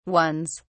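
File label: "ones", British English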